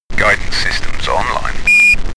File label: astronaut chatter systems online